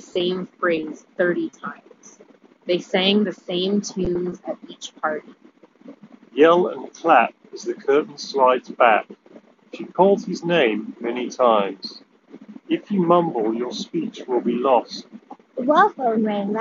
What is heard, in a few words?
Sony WF C 500 Wind microphone sample